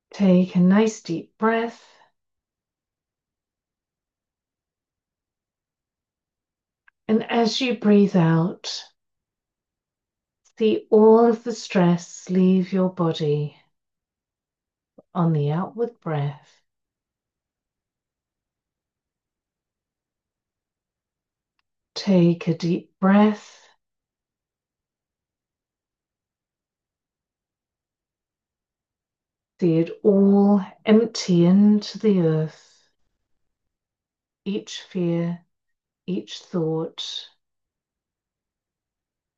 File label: 1 minute meditation; Productivity and Flow Meditations